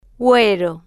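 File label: "guero", Spanish, slow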